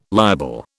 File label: "liable", British